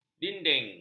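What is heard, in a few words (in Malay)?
"dinding", Malay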